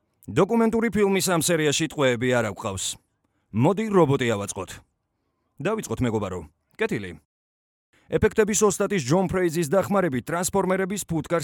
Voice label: Georgian